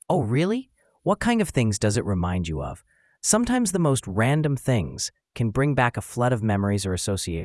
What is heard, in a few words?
emotion